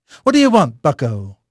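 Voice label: English male; Pirates Of The Caribbean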